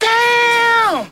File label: "damn", expletives; christ tucker